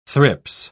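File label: "thrips", British English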